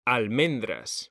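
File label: "almendras", Spanish, male; mekanta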